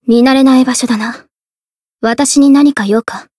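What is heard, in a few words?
Voice story, Japanese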